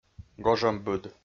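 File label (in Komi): "гожӧмбыд", Komi-Zyrian, male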